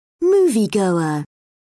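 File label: "moviegoer", British English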